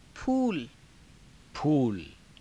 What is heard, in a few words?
"phuula", hindi